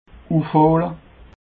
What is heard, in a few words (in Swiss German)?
"ufhole", alsace